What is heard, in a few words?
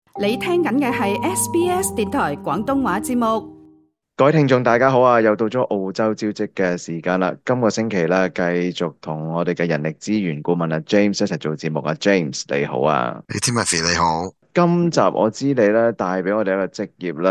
cantonese; 【澳洲招職】澳洲十大短缺職業之「技術工人」; SBS Chinese